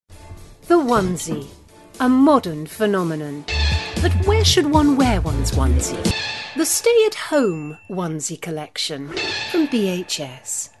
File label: commercial